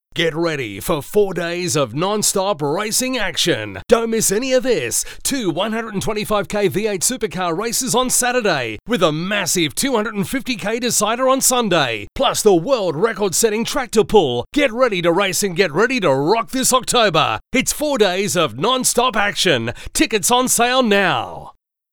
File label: Hard Sell